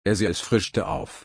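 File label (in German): frischen, German slow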